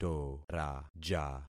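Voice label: "toraja", concatenated syllables; Affixing experiment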